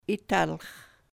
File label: "italx", sahaptin